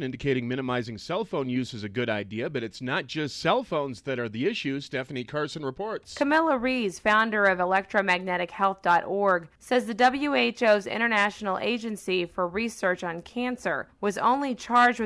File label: wyoming radio news